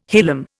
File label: "hilum", Indian